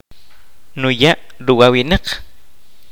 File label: kaqchikel